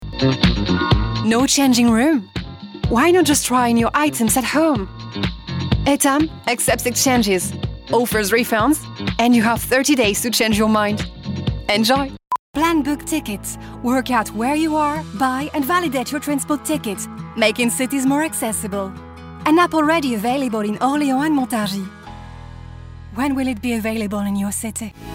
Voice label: female English with French accent and French compilation